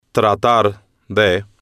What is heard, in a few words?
"tratar de", spanish